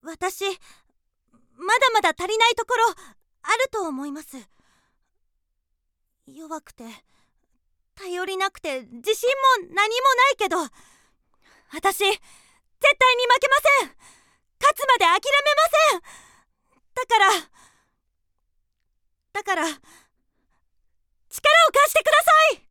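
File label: girl, Japanese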